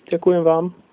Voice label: thanks, slovak